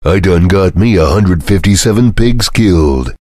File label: cheer; svencoop; voice commands; Duke